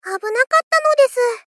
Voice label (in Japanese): Moe Victory Abuna katta nodesu; Rei Yumesaki